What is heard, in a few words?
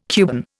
"Cuban", Indian